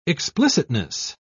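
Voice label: "explicitness", English, British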